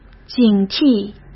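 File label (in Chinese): "警惕", Mandarin; chinese-flashcards; hsk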